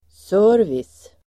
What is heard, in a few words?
"service", Swedish